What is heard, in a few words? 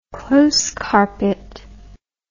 "close carpet", English